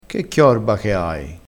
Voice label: "chiorba", crespinese

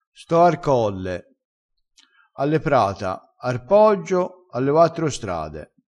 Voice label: "sto", vocabolario dialettale crespinese

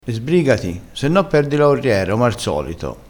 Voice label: "corriera", crespinese

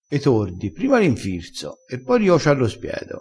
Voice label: "infirza", vocabolario dialettale

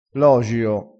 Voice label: "logio", crespinese

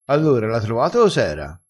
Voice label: "carcare", crespinese

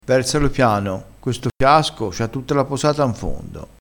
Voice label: "posata", crespinese

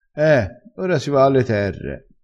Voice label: "terre", vocabolario dialettale crespinese

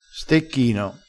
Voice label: "stecchino", vocabolario dialettale

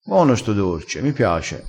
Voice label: "garba", crespinese

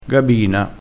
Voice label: "gabina", dialettale crespinese

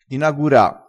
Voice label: "inagura", dialettale crespinese

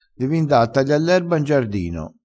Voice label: "si na sega", crespinese

